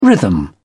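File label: "rhythm", British English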